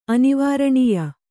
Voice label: "ಅನಿವಾರಣೀಯ", kannada

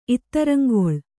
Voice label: "ಇತ್ತರಂಗೊಳ್", Kannada

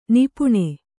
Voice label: "ನಿಪಾನ", kannada

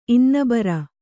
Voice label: "ಇನ್ನೆಬರ", kannada

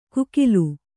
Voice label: "ಕೂಕಿಲು", Kannada

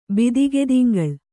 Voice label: "ಬಿದಿಗೆದಿಂಗಳ್", Kannada

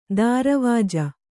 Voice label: "ದಾರವಾಜ", kannada

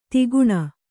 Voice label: "ಧೊಕ್ಕನೆ", kannada

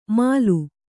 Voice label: "ಮಾಲು", Kannada

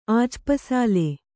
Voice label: "ಆಜ್ಪಸಾಲೆ", Kannada

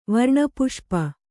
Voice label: "ವರ್ಣಪುಷ್ಪ", Kannada

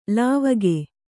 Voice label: "ಲಾವಕ", kannada

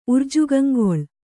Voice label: "ಉರ್ಜುಗಂಗೊಳ್", Kannada; urjugaŋgoḷ; to make an attempt; to try earnestly.; to think and decide; to determine.

